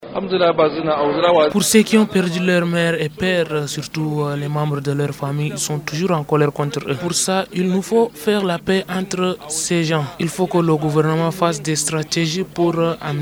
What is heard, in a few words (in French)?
appel à lacceptation aux repentis, Francais